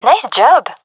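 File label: compliment, female; vo; gendarmerie fem epic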